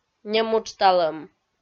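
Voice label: "немуджталӧм", Komi-Zyrian, female; kpv audio dict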